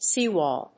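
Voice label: "seawall", English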